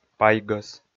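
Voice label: "пайгӧс", Komi, male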